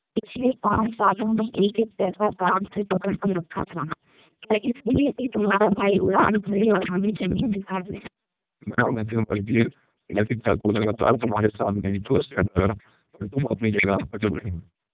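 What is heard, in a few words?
Hindi, speechsamples, MELPe 2400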